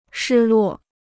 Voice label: "失落", Chinese

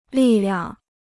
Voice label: "力量", Chinese

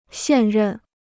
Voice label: "现任", Chinese

